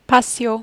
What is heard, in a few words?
"patio", French